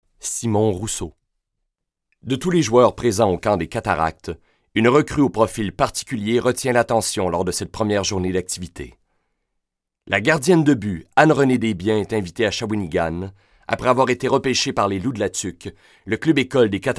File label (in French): energique